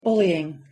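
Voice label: "bullying", English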